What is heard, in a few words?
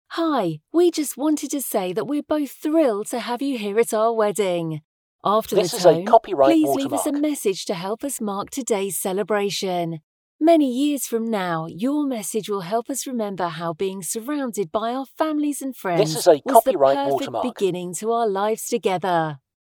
Female Voice